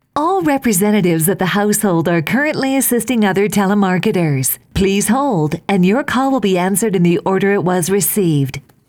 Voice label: please hold, ivr